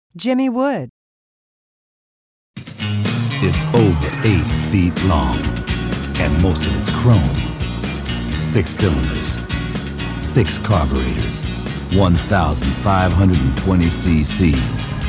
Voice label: commercial; Innovative LA